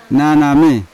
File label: "naname", Yawa; shadow; unat